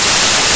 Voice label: voice message